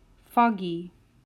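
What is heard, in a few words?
"Foggy", English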